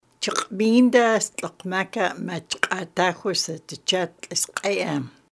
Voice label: instruction, ulster; jetpackLearningPlatform; coconut-shy